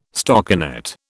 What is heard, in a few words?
"stockinet", British